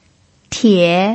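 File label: "tie", Mandarin Chinese pinyin, third tone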